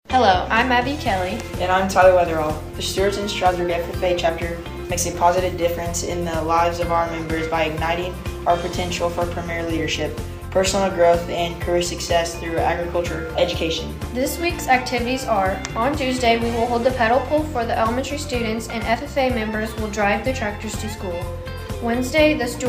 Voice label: female male; stew stras ffa; ffa week 2021